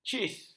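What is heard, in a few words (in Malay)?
"cis", Malay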